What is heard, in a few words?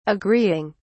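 "agreeing", British English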